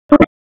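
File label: Police Spec Ops Tac, radio; Raleigh; Tac 4; wake; p25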